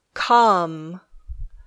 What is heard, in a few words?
"come", English